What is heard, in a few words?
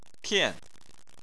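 "tian", Chinese, fourth tone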